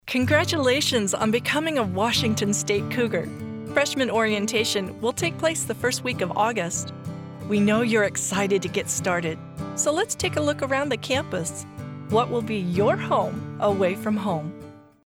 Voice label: College intro Narration